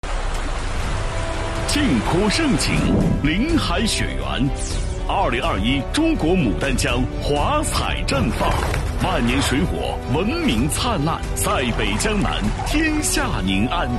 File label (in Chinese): 男 宣传; 黑龙江省第四届旅游发展大会